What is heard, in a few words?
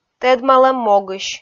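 "тӧдмалӧм могысь", Komi, female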